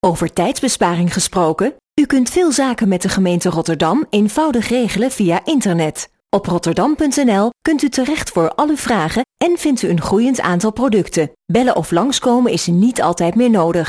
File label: radio commercial; gemeente rotterdam